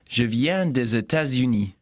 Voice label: conversation